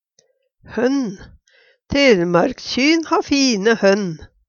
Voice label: honn, Numedalsmål; Telemarkskjyn ha fine hønn.

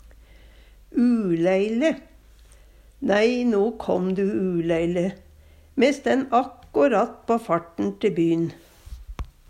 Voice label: uleile, Numedalsmål; Adverb

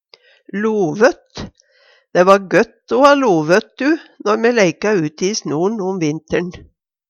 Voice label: lovott, Numedalsmål; Substantiv hankjønn; Personleg utstyr, klede, sko; Tekstilhandverk

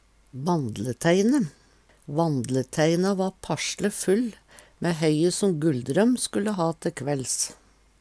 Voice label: "vanndleteine", Numedalsmål; vandleteine; Vandleteina va passle full mæ høye som Gulldrøm skulle ha te kvælls.

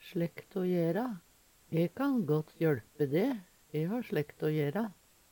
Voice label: "ha sLekt å jera", Numedalsmål; E kan gått jøLpe de. E ha sLekt å jera.

